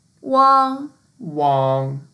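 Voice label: "wang1", Mandarin Chinese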